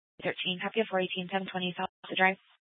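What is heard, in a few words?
police department Dispatch, scanner; Mesa PD; Fiesta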